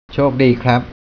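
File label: "chok dee", Thai, male; travel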